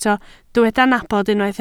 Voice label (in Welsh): "nabod", Welsh